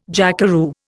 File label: "jackeroo", Indian